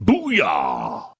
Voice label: "booyow", Voice